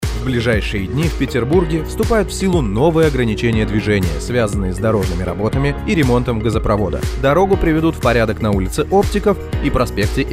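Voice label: informational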